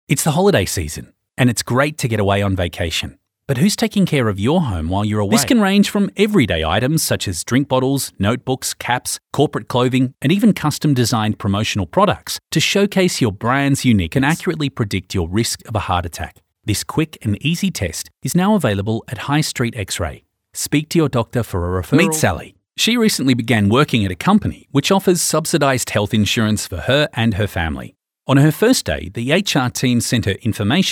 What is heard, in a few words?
natural